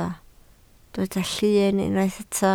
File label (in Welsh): "llyn" Welsh